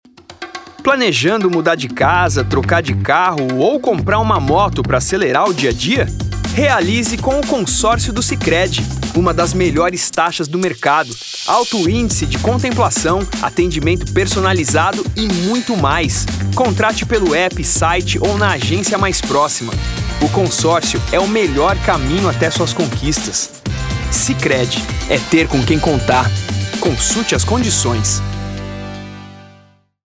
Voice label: Spot; Sicredi Spot Geral